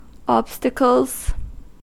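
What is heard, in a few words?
"obstacles", US English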